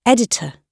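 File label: "editor", British English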